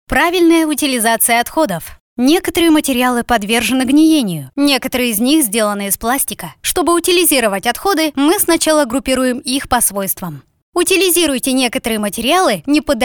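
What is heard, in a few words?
instruction, Russian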